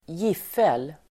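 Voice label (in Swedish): "giffel", Swedish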